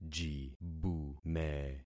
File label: "jibume", concat syllables; Affixing experiment